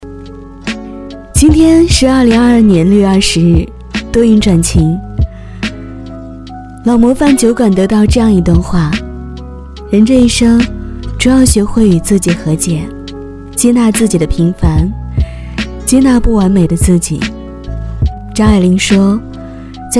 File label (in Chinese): 女, 素人; 老模范酒馆语